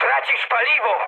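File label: tank damaged, mood high, Polish speech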